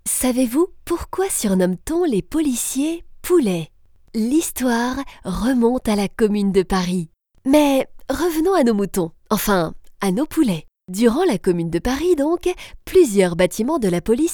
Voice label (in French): Audioguide anecdote mutine; LES POULETS POLICIERS